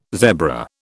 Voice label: "zebra", British

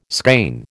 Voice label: "skein", American

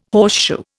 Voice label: "horseshoe", Indian

